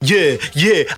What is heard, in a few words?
yeah yeah, voice; ooh ahh